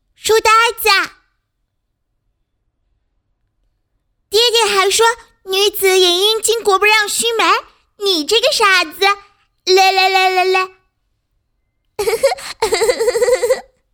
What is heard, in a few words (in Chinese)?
小女孩